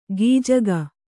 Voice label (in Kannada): "ಗೀಜಗ", Kannada